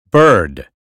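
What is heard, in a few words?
"bird", American phonetics; 英语音标发音（剑桥版）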